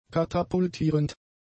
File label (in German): "katapultierend", German; adjectives